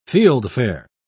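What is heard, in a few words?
"fieldfare", English, British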